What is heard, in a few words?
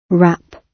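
"wrap", British English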